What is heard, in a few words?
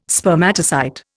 "Spermatocyte", Indian